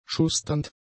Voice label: "schusternd", German; adjectives